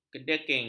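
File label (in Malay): "kedeking", Malay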